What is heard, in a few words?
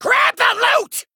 Male Goblin Attack